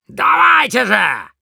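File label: battlecry, Russian; Demoman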